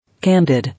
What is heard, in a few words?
"Candid", English